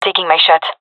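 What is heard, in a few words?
attacking, female; vo; gendarmerie fem epic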